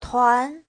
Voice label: "tuan2", Chinese

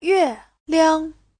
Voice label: "yue4 liang5", Chinese; 月亮; (danh từ) mặt trăng; Giáo trình chuẩn HSK3

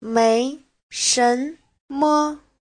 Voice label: "mei2shen2me5", chinese

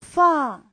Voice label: "fang4", chinese; 放; để, đặt

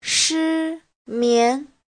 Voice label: "shi1mian2", Chinese; 失眠 : mất ngủ; Giáo trình Hán ngữ

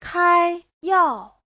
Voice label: "kai1yao4", chinese; Giáo trình Hán ngữ

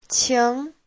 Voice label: "qing2", Chinese

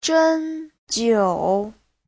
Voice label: "zhen1jiu3", chinese; 针灸; châm cứu

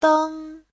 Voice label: "deng1", chinese; 灯; (danh từ) đèn; Giáo trình chuẩn HSK3

